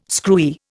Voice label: "screwy", Indian